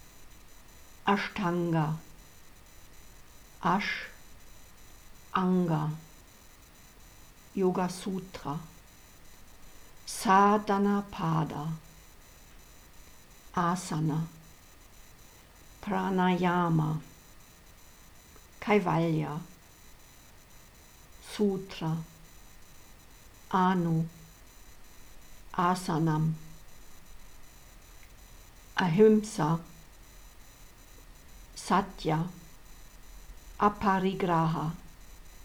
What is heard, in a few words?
Sanskrit